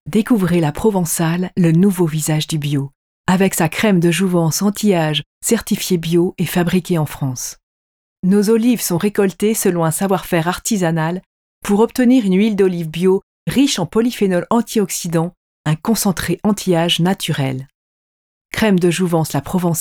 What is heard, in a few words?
female adult